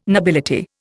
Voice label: "nobility", Indian

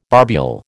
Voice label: "barbule", American

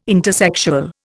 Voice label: "intersexual", Indian

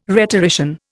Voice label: "rhetorician", Indian

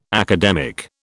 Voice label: "academic", British

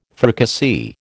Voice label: "fricassee", American

